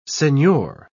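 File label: "senhor", English, British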